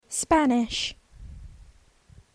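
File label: "spanish" English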